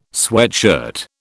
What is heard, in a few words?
"sweatshirt", British